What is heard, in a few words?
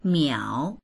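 "miao3", Chinese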